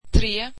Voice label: three, swedish

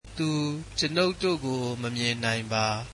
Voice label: he cannot see us, burmese

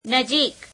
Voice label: "near", gujarati; નજીક; nejeīk

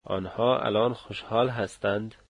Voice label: they are happy now, dari

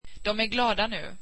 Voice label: they are happy now, swedish

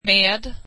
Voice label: swedish with

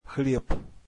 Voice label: russian bread

